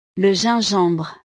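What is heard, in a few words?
"le gingembre", French; verduras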